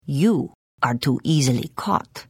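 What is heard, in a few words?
Hello, Khajiit female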